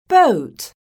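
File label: "BOAT", English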